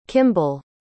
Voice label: "kimball", pronounce